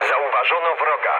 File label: enemy detected mood low, speech pol; War Thunder